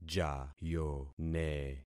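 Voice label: "jayone", concatenated syllables; Affixing experiment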